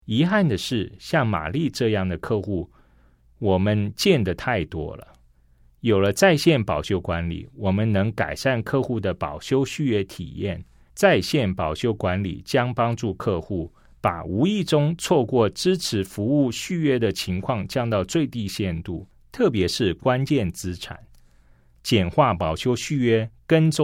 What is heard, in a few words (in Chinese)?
CHINESE